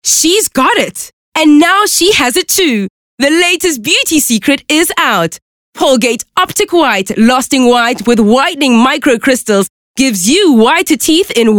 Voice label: English Hardsell